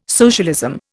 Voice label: "socialism", Indian

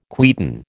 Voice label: "quieten", American

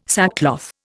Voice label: "sackcloth", Indian

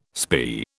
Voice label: "spae", British

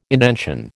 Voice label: "indention", American

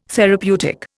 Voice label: "therapeutic", Indian

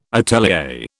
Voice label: "atelier", British

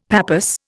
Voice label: "pappus", Indian; Dictionary

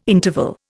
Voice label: "interval", Indian

